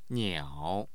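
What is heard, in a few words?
"niao3", Mandarin Chinese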